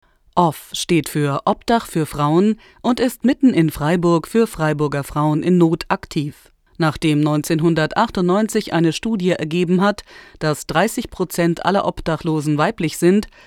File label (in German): imagefilm, deutsch frauen; sprecher